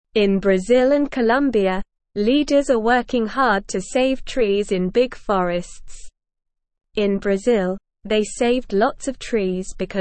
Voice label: English Newsroom Beginner SLOW Reading; Leaders in Brazil and Colombia Save Trees

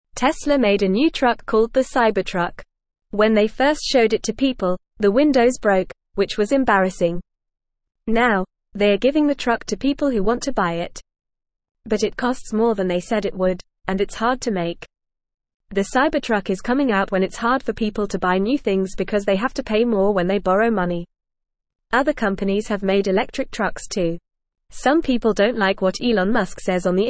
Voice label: English Newsroom Lower Intermediate FAST Reading; Teslas Cybertruck A Big Mistake But Still Exciting

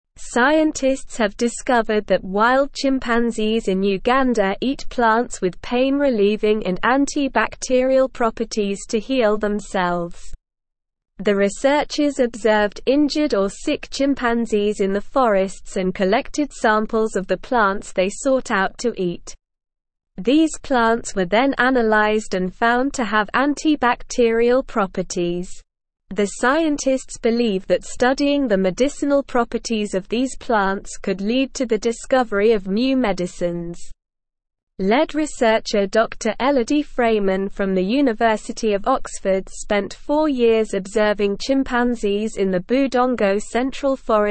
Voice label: English SLOW Reading; Wild Chimpanzees in Uganda Use Plants with Medicinal Properti; English Newsroom

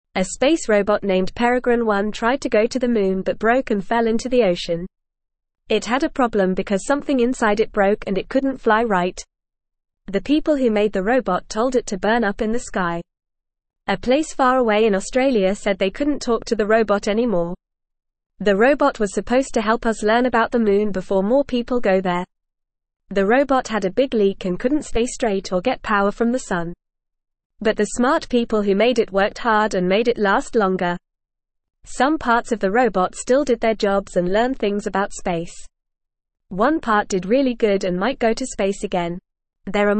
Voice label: English Newsroom Beginner FAST Reading; Space Robot Peregrine One Falls into Ocean

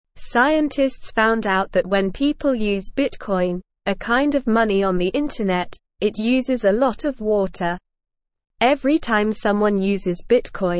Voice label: English Newsroom Lower Intermediate SLOW Reading; Bitcoin Uses a Lot of Water Which is Bad

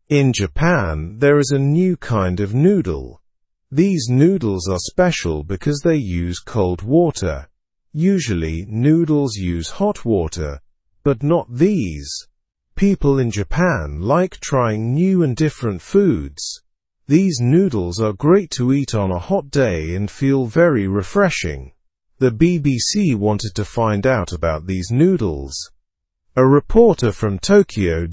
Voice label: learn english through news beginner slow; cold water cup noodles in japan

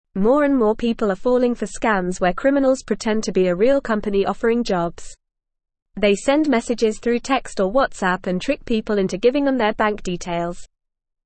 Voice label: English FAST Reading; English Newsroom; Recruitment scam losses soar as victims increase

